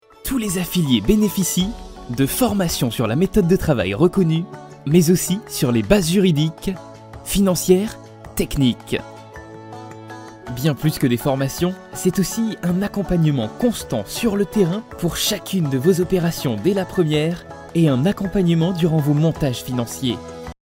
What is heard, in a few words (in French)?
voix off homme fr